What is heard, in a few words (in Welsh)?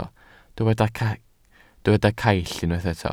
"caill", Welsh